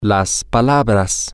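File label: the words, spanish, male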